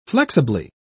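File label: "flexibly", British English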